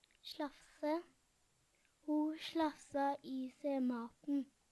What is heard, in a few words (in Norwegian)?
slaffse, Numedalsmål; Verb; Hushald, mat, drikke; Ho sLaffsa i se maten.; Veggli